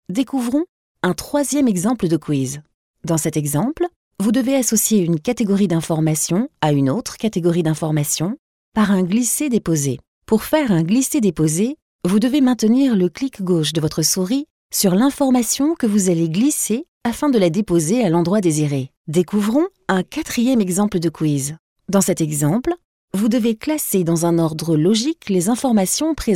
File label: french